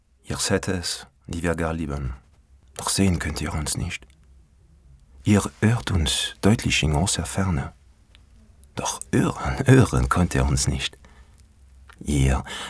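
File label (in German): akzent franzoesisch, Sprecher, Männer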